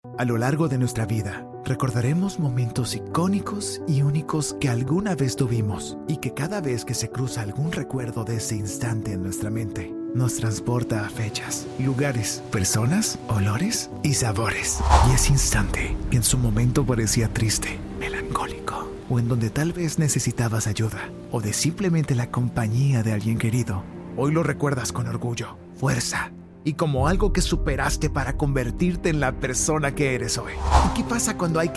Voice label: spanish latin american documentaries